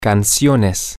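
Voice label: songs, Spanish, male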